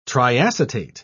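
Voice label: "triacetate", British English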